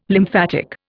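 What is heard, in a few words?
"lymphatic", Indian English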